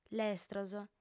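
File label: "lestros", female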